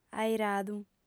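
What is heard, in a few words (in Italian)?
"airadu", sarda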